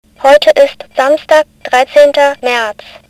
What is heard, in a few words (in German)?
Datumansage sprechender Funkwecker mit Thermometer